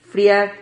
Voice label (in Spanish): "friad", Silabas